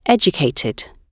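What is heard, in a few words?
"educated", British English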